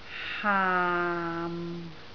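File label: "haam6", Cantonese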